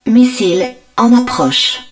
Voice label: Incoming Missile, french, hud

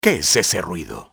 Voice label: what was noise, spanish soldier; enemy; XBOX

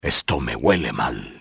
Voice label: something not right, spanish soldier; enemy; XBOX

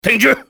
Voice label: "stop", cantonese male; XBOX; enemy

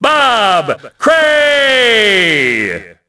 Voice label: announce, ENGLISH; Rocky; XBOX

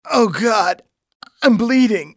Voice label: im bleeding, english male; XBOX; civ

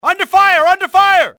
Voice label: under fire, english male; XBOX; enemy

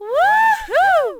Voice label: girl; norleans

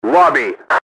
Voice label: lobby, enemy english team orders; XBOX

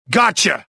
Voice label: anger; XBOX; rebel

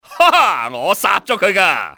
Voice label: killed him, cantonese male; XBOX; enemy

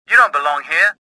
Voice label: suspicious; XBOX; worker

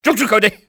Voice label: get them, cantonese male; XBOX; enemy

